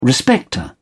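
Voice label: "respecter", British English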